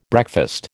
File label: "breakfast", American